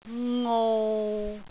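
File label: "ngou6", Cantonese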